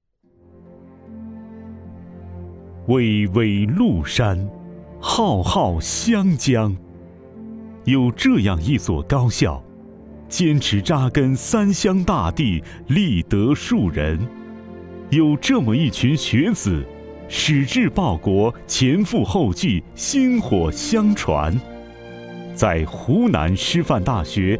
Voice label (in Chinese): 宣传片; 湖南师范大学